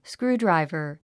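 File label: "screwdriver", English word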